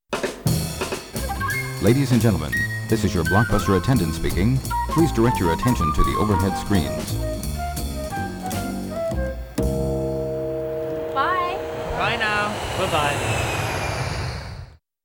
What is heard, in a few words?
TV Spot Voiceover; Blockbuster Video